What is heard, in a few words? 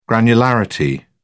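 "granularity", English, British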